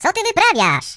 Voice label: Nutter, voices, pl; hedgewars; Default pl

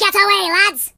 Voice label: "Run away", Pirate; HedgewarsMobile

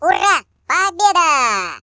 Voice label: Victory, Default ru; hedgewars

